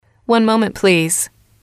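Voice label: "one moment please", English, female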